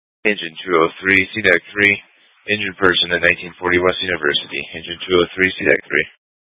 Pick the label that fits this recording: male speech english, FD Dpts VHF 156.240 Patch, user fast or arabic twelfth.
FD Dpts VHF 156.240 Patch